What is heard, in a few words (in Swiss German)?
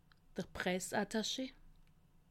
"attache", alsace